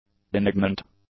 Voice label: "benignant", English; adjectives